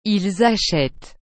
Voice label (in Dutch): "ils achetent", frans; acheter